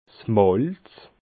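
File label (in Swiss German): "malz", Bas Rhin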